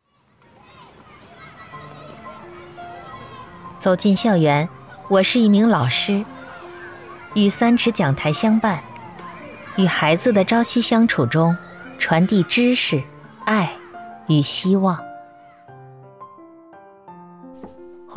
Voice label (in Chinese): 广告宣传片